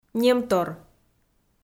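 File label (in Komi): "немтор", Komi, female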